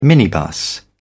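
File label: "minibus", British English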